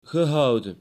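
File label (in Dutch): "gehouden" Dutch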